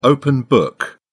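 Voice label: "open book", British English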